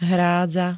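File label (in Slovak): "hrádza", Slovak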